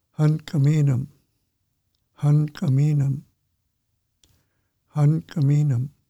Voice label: Musqueam language name pronunciation